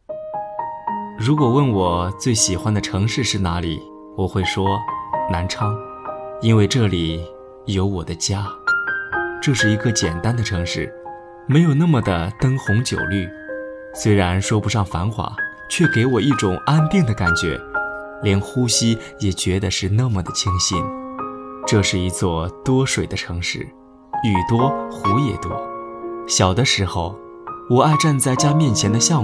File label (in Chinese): 男 关于爱情（感情）